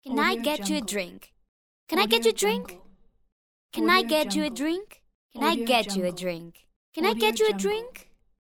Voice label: teenage girl asking can i get you a drink